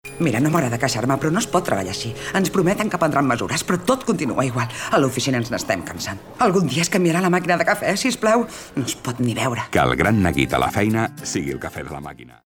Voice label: female catalan coffee comercial; ideasonora

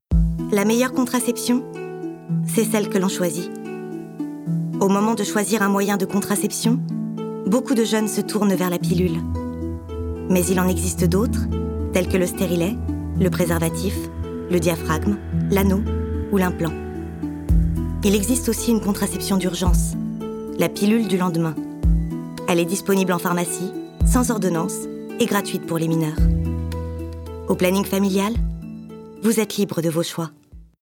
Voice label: female french tv comercial; ideasonora

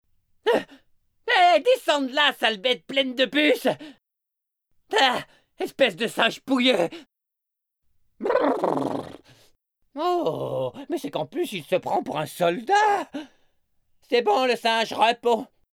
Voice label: male french cartoon comercial; ideasonora

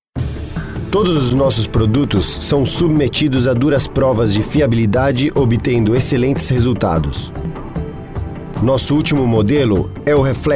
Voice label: male brazilian comercial; ideasonora

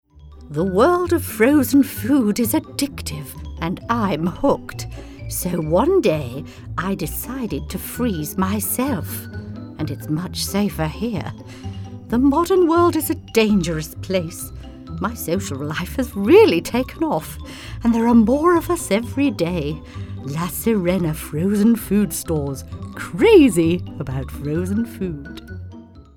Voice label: female british english food comercial; ideasonora

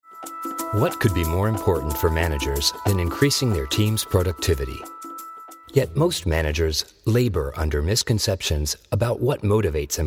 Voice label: male american english business comercial; ideasonora

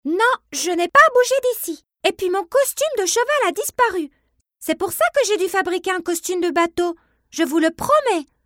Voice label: female french kids comercial

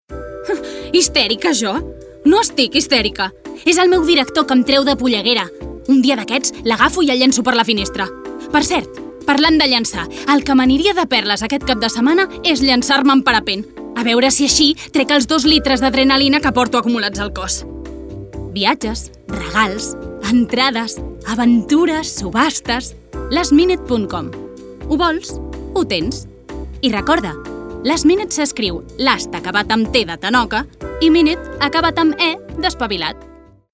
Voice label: female catalan comercial; ideasonora

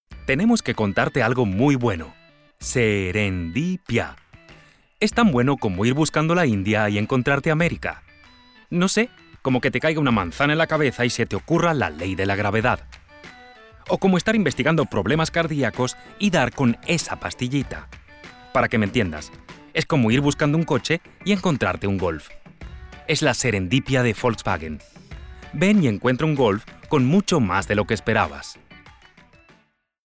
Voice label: male latam venez uru comercial; ideasonora

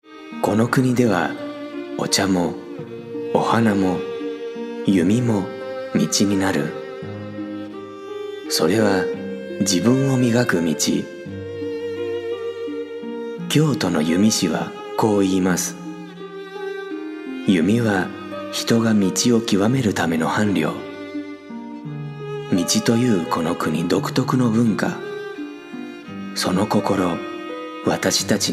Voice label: male japanese comercial; ideasonora

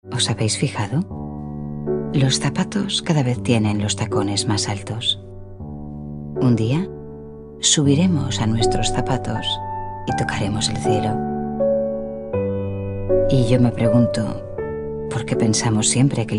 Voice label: female spanish drink comercial; ideasonora

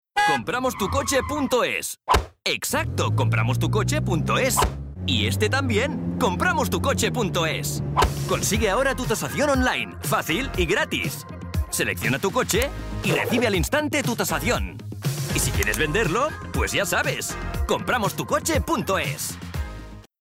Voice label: male spanish comercial; ideasonora

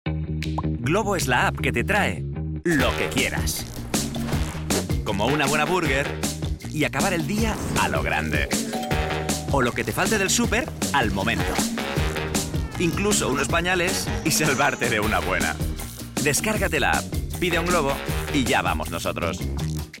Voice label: male spanish commercial foodservice; ideasonora

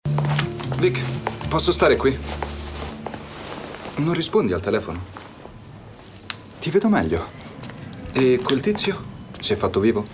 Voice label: male italian comercial; ideasonora

